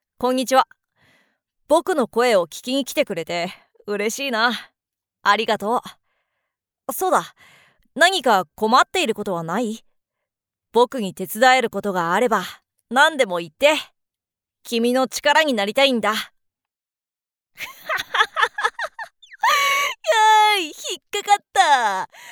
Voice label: male